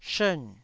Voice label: "shern4", Taiwanese